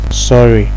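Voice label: "sorry", Nigerian Pidgin, male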